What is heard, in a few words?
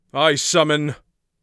i summon, Mage Voice Pack